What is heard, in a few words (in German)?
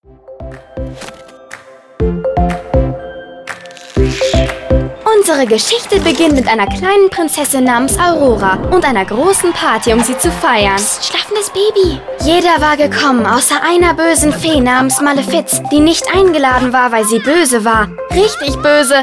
Retelling, maedchen; Disney Aurora